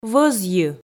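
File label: "вӧзйы", Komi, female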